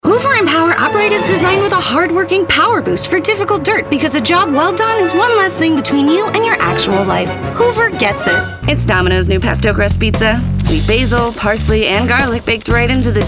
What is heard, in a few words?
Commercial